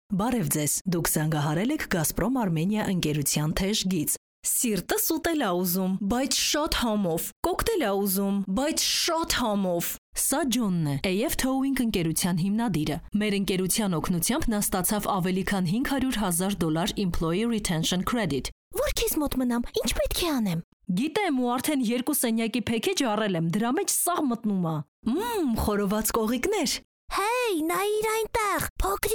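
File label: Armenian, General; GoLocalise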